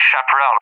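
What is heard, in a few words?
"Chaparral", English; UK; AirDefence; DCS; Allied Flight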